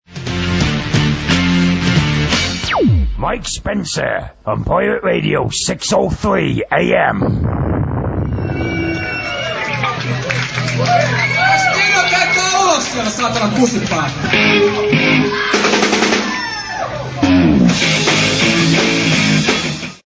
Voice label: Pirate Radio 603 AM jingle